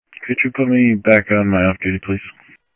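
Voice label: Sheriff Lake Patrol, scanner audio trunk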